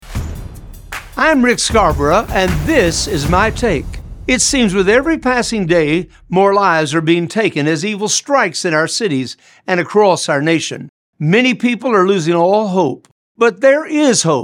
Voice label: Radio Spot; Hope